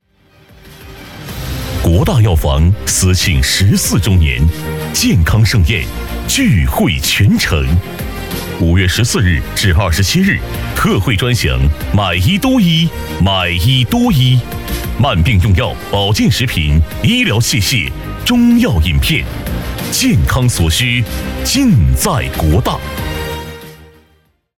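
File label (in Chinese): 男【广告】; 国大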